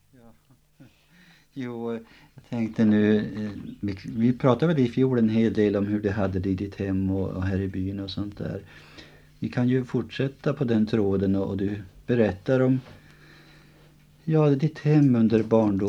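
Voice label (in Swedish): Hille Gästrikland, Svenska sagor, dialekter; Folkminnen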